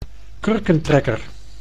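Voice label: "kurkentrekker", Dutch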